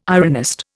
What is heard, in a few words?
"ironist", Indian